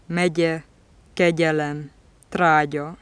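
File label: palatal stop voiced medial, hungarian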